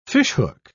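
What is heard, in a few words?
"fishhook", British English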